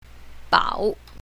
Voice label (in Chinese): "bao3", pinyin south